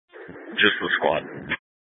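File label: Incident Tac 1, Scanner audio recording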